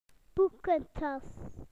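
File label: "schoolbag", British English; PictureDictionary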